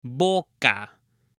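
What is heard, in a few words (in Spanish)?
"boca" Spanish; CUERPO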